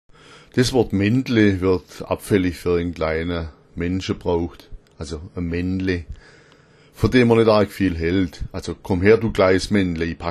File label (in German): "mendle", schwaebisch; Das Wort wird abfällig für einen kleinen Menschen gebraucht (Männle)